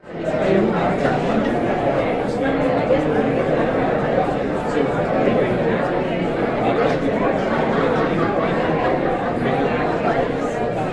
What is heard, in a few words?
Crowd talking in public area